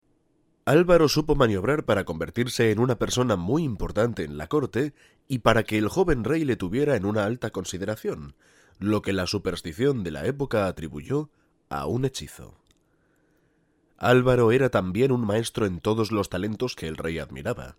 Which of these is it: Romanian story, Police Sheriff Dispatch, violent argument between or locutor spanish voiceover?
locutor spanish voiceover